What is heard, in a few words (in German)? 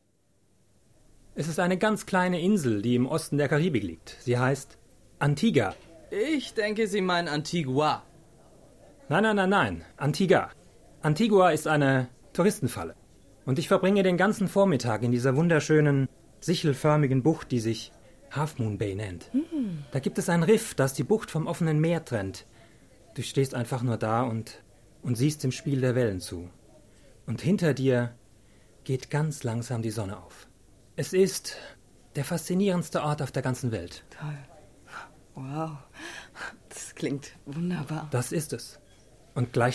Synchro Realfilm